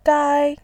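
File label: "gai", Mandarin Chinese, first tone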